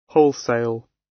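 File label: "wholesale", British; Business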